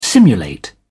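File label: "simulate", British English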